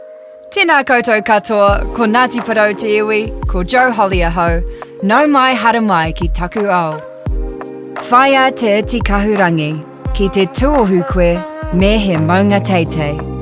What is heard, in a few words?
TE REO MAORI